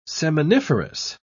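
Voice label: "seminiferous", English, British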